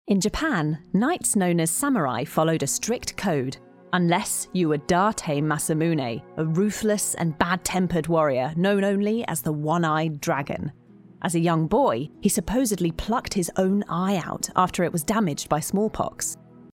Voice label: english british documentaries

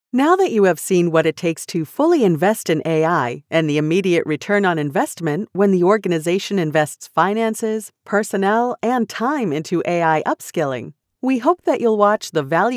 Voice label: english american e-learning

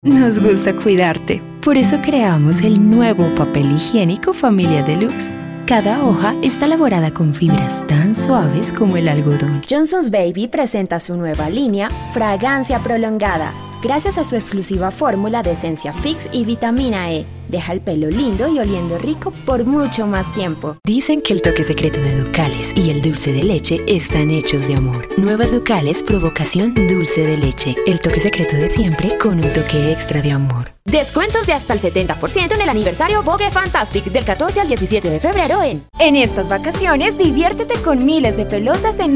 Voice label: spanish colombian commercial; Spanish (Latin American) Spanish (Colombian); United States

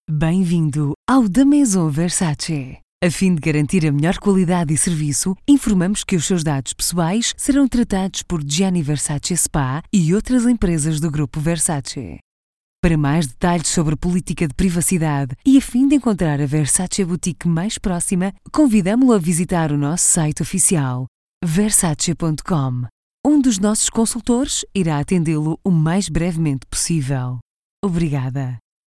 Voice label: portuguese ivr

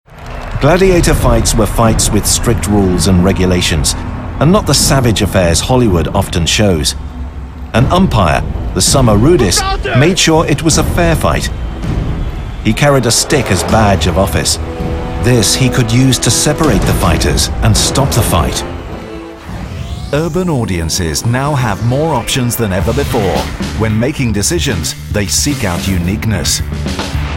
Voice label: english british documentaries

